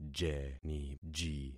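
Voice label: "jeniji", concatenated syllables; Affixing experiment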